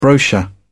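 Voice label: "brochure", British English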